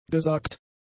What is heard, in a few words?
"gesagt", German; adjectives